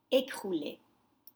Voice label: "ecroule", French; crumbled